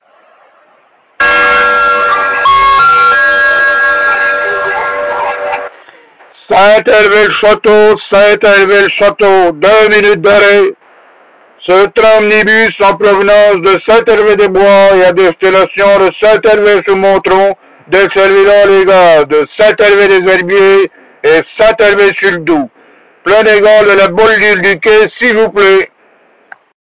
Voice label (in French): annonce chef de gare avec jingle, sonorisation par haut-parleur intégré; st herve le chateau; module 2000